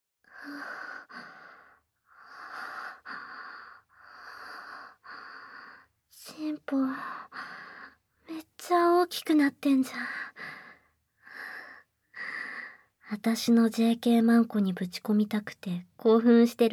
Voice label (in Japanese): 正常位＆ささやき, ASMR; SE無し